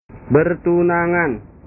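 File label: "bertunangan", Indonesian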